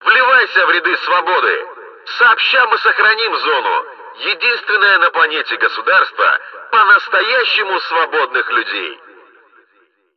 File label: megaphone